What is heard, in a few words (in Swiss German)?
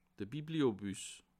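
"bibliobus", Bas Rhin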